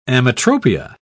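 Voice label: "ametropia", English, British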